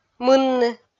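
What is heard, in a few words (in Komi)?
"мынны", Komi, female; kpv audio dict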